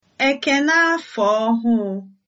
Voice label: new year, igbo